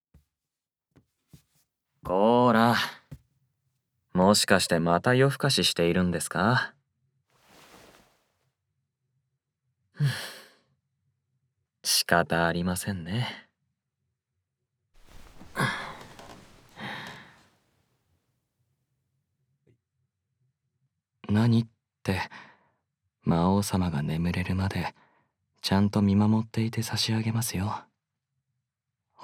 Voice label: 寝かしつけボイス; 魔王様働いてください〜とろける舌舐めでわがまま魔王様に全身えちえち甘やかしご奉仕〜

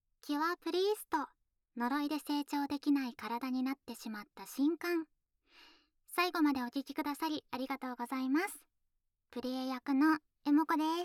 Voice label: フリートーク; Cure Priest〜呪いで成長できない体になってしまった神官〜

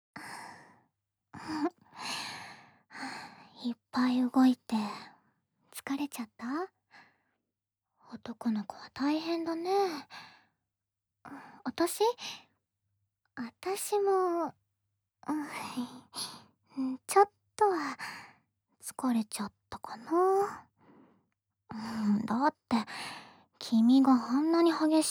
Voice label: ボイスオンリー, ASMR; これからももっと甘えん坊でいてね♥

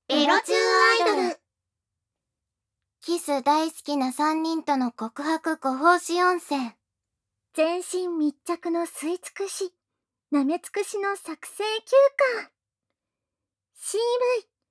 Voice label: タイトルコール, ASMR

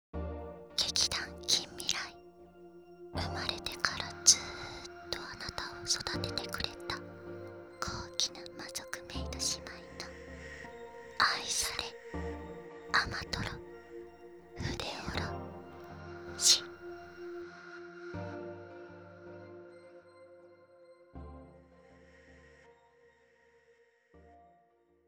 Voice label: タイトルコール; 生まれてからずっと貴方を育ててくれた高貴な魔族メイド姉妹の愛され甘とろ筆おろし

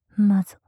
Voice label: マゾ・極近・左, ASMR

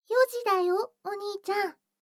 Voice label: 時報 4時; 全肯定ロリママのあまあまでえっちな添い寝; いもおかASMR

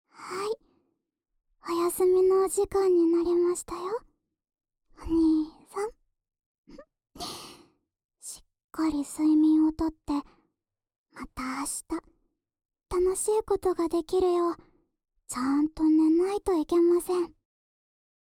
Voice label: 安眠用添い寝ループ（右耳）, ASMR

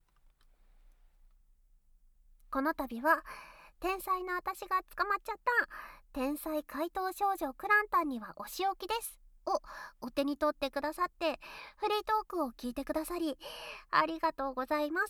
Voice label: フリートーク; KU100; 天才怪盗少女くらんたん

